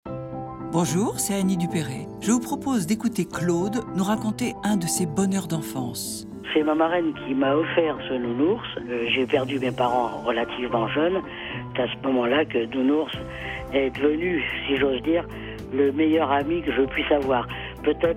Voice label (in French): pub villages enfants